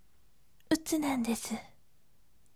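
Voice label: "utunadesu", gentle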